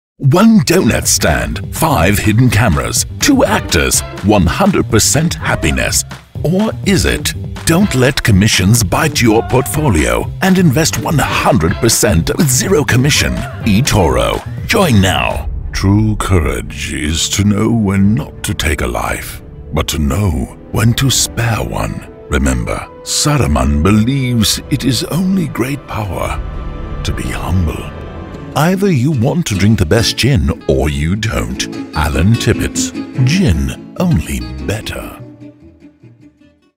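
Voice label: strong male midlantic british mixed, Non Native British; Foreign Language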